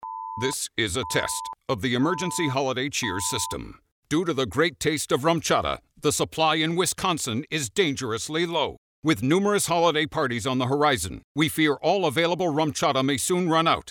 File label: Radio; Rumchata; Low Supply; Wisconsin